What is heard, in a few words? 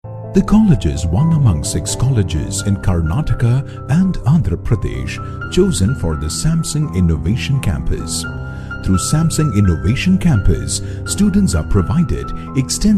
ENGLISH DOCUMENTARY